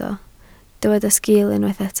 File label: "sgil", Welsh